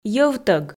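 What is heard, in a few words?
"йӧвтӧг", Komi, female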